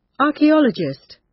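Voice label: "archeologist", British; Study, education, research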